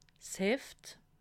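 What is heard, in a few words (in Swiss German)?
"heft", Haut-Rhin; livre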